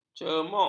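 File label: "cemuk", Malay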